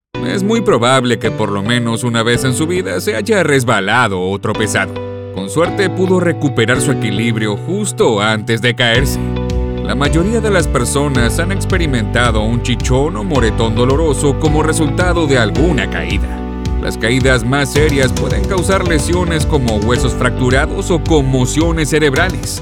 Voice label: Latin Neutral Spanish E-Learning; Demo 2019